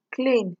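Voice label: "clean", Nigerian Pidgin, female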